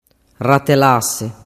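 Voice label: "ratelase", Sicilian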